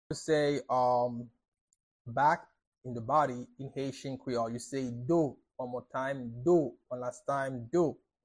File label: How to say Back body in Haitian Creole – Do pronunciation by a native Haitian teacher